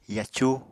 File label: "yacu", Apatani; Household Items